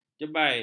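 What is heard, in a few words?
"jebai", Malay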